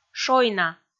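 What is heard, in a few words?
"шойна", Komi, female; kpv audio dict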